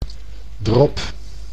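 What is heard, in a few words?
"drop", Dutch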